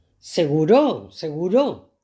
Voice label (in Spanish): profesora; mucho teatro; presentador asterisco